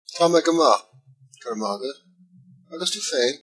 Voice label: fine, Irish

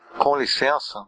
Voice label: excuse me, portuguese br

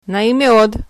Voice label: meet, hebrew

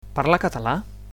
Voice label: "do you speak", Catalan

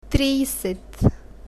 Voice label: "trijset", bulgarian numbers

